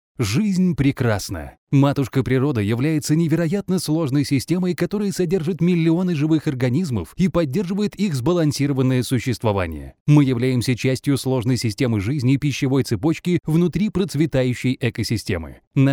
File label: Narration Male Russian